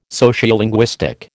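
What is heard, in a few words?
"Sociolinguistic", American English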